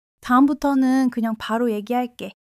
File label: agreement, female